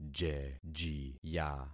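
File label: "jejiya", concatenated syllables; Affixing experiment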